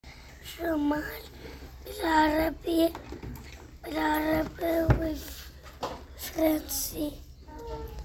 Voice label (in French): En arabe et en francais